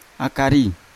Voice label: "akari" head, Yawa